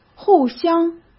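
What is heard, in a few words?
"互相", Mandarin Chinese; chinese-flashcards; hsk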